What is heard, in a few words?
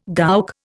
"gowk", Indian